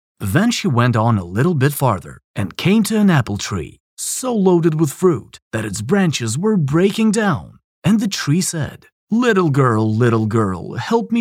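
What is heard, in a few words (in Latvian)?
Pasaka English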